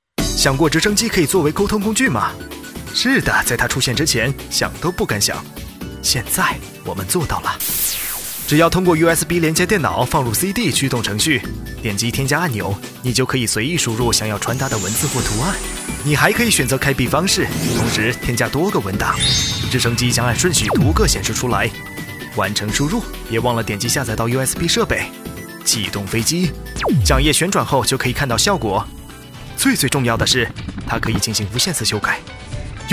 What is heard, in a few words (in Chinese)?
英男 中文样音 广告